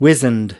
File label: "wizened", us